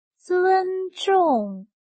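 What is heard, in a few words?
"尊重", Chinese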